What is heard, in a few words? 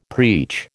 "preach", American